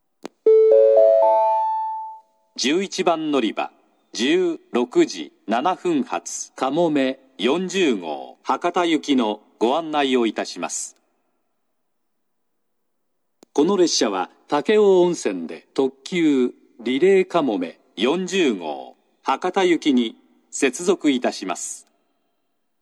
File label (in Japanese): 嬉野温泉, 11番のりば案内放送; かもめ40号 博多行き; 12分遅れ; 武雄温泉